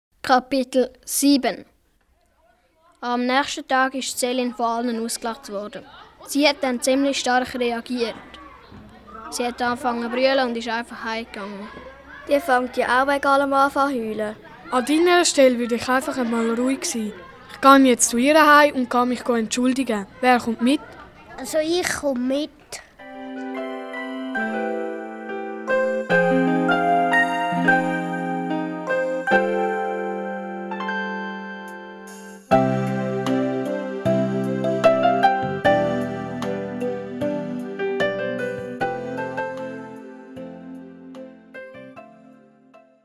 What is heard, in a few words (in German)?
Hoerspiel Zickenkrieg; Minutengeschichten